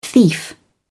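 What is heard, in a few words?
"thief", British English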